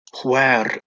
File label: "hwaer", Anglo Saxon